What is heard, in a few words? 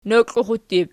"noklaxuttur", Archi